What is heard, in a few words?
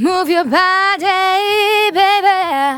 Phrase Female; Vengeance Samples; Phrases